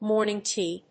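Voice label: "morning tea", English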